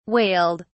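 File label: "wailed", British English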